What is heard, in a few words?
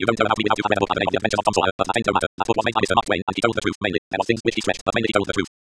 espeak sonic; android 6.0.1